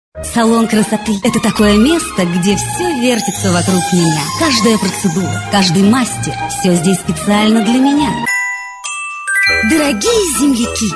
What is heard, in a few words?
voice female